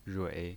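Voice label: "rui", Mandarin Chinese pinyin voice, third tone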